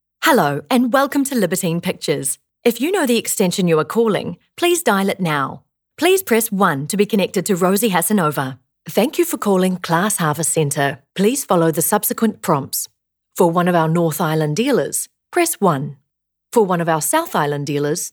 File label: NZ PHONE